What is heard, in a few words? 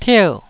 "tier4", taiwanese Syllables